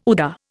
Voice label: "UDA", Indian